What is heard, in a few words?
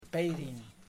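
"I saw you", Kamba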